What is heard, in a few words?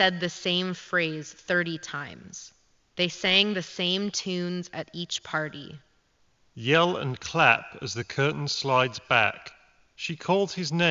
Reverb microphone sample; SteelSeries Arctis Nova 7 wireless